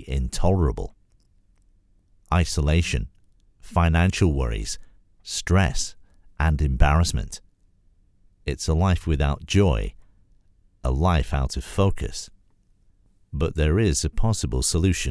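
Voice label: UK addiction treatment centres voice over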